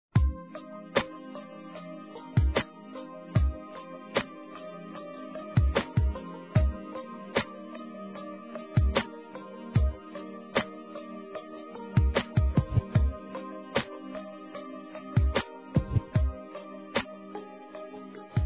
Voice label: Poesia Femininno, Telemensagens Românticas

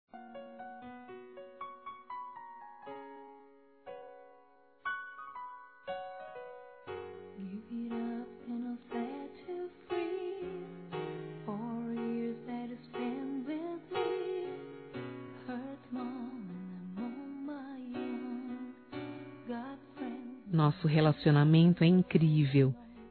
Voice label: sua insegurança, fem

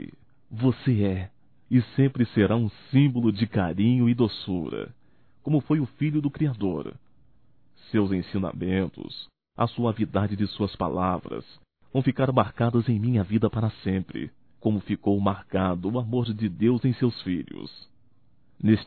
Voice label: NATAL PAI (M); masc; Telemensagens 2017; Natal & Ano Novo

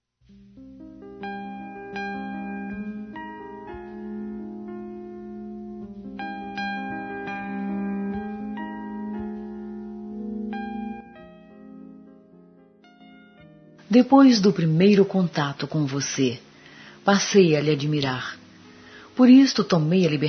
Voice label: Aniversário Pessoa Distante, Fem; Aniversários

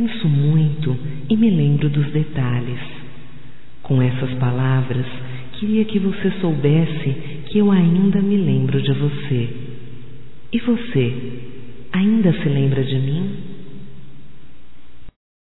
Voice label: voz Amor do Passado, fem; Telemensagens 2017

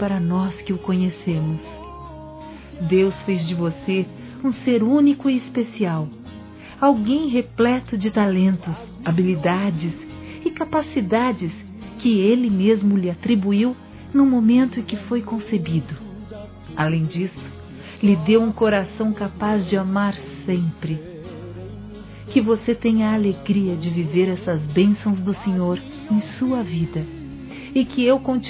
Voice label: Aniversário Neto Evangélico Feminino, Telemensagens; Aniversários; Aniv Familiar

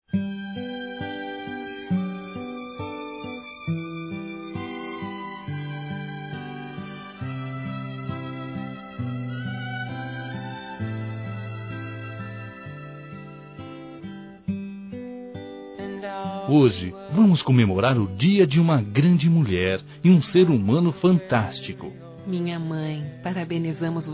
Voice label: Aniversário Mãe duas vozes, Telemensagens; Aniversários; Aniv Familiar

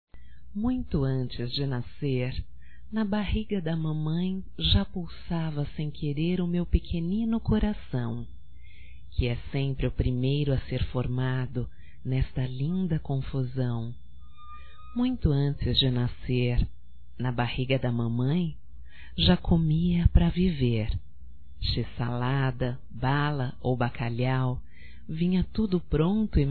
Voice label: Dia das mães umbiguinho, telemensagens; Telemensagens 2017

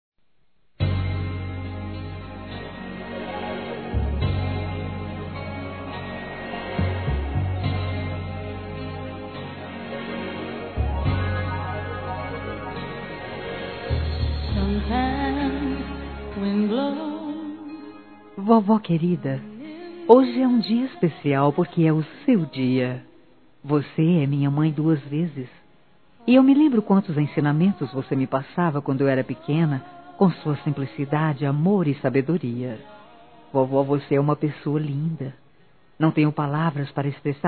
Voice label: Aniversário Avó Feminino

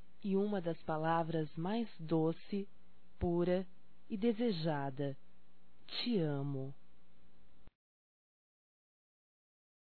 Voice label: Gostaria de Dizer Feminino; Românticas

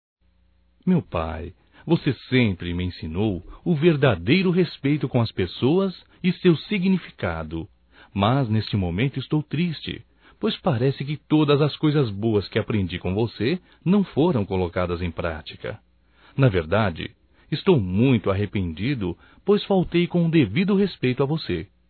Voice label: Masculino, Só Voz; Telemensagens; Desculpas ao Pai; Telemensagens 2017

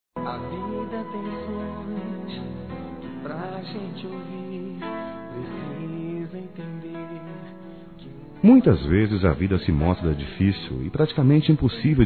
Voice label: COMPADRE M; Aniversários; Aniv Familiar

